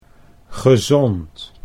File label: "gezond", Dutch